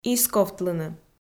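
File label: "исковтлыны", Komi, female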